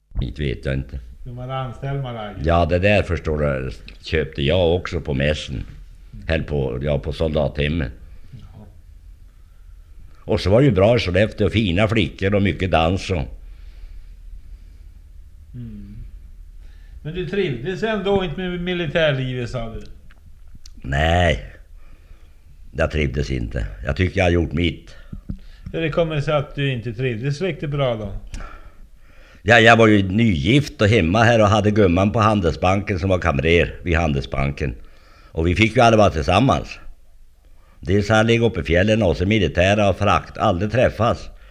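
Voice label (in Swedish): Ullånger Ångermanland, Svenska sagor, dialekter; Folkminnen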